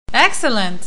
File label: "excellent", English